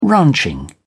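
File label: "ranching", British English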